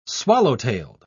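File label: "swallow-tailed", British English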